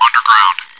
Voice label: chitchat, bot, voice commands; svencoop